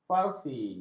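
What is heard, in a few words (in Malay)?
"farsi", Malay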